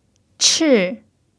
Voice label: "chi4", chinese pinyin